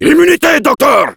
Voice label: Heavy activate charge, fr; "Immunité, docteur !"

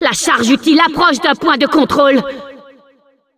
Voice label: Announcer cart attacker warning, fr

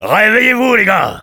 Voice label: Engineer jeers, fr

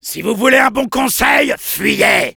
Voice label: Soldier taunts

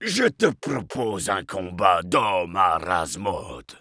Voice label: Heavy melee dare, fr

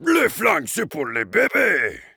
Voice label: Heavy melee dare, fr

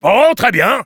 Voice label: Engineer yes, fr

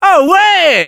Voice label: Scout cheers, fr; "Oh ouais !"

